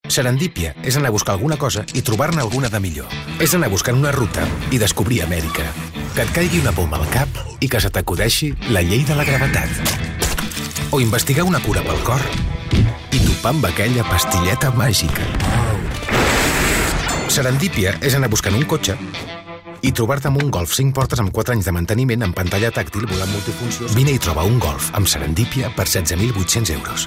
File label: male catalan car comercial